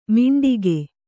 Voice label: "ಮಿಂಟಿಗೆ", Kannada

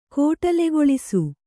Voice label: "ಕೋಟಲೆಗೊಳಿಸು", Kannada

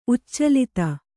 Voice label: "ಉಚ್ಚಲಿತ", Kannada

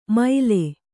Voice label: "ಮೈಲೆ", Kannada

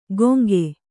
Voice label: "ಗೊಂಕೆ", Kannada

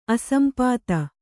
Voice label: "ಅಸಂಪಾತ", Kannada

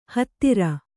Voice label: "ಹುತ್ತರಿ", kannada

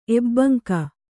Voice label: "ಎಬ್ಬಂಕ", Kannada

